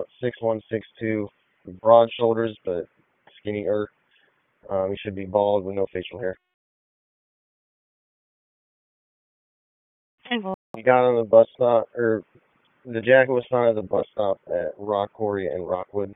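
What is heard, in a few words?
Raleigh Police Southeast District Dispatch, p25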